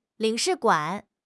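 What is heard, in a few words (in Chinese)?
"领事馆", Chinese; HSK6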